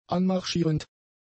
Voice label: "anmarschierend", German; adjectives